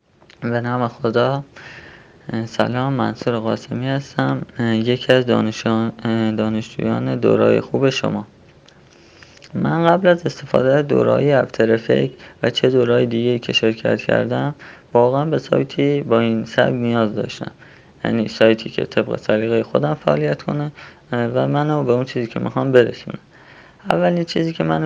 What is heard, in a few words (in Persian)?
صدای مشتریان راضی